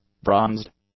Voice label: "bronzed", English; adjectives